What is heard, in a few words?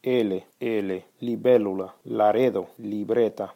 Letra L, el alfabeto español; Trayectos